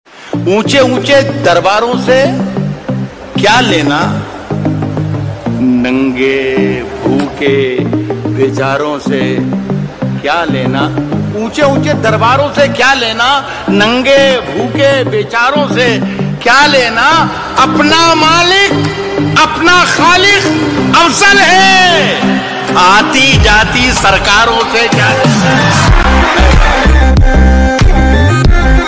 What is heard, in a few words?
New Hindi Shayari Ringtone